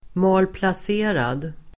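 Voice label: "malplacerad", Swedish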